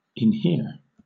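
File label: "inhere", British English; pronunciations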